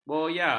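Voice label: "boya", Malay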